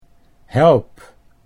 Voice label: "help", Dutch

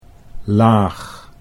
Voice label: "laag", Dutch; 'low' 'layer'

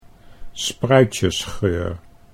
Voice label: "spruitjesgeur", Dutch

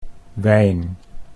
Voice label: "wijn", Dutch

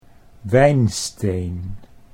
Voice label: "wijnsteen", Dutch

